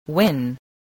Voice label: "win", spelling

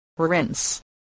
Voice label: "rinse", voice spelling

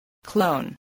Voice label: "clone", voice

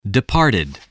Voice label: "departed", English

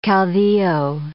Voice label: "caudillo", English

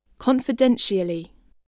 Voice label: "confidentially", english

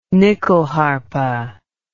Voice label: "nyckelharpa", English

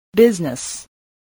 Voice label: "business", spelling

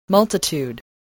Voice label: "multitude", voice

